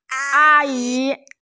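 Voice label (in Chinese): "a1yi2", Mandarin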